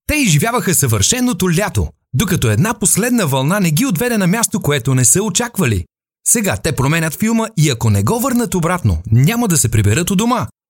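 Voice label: Bulgarian, male; voice over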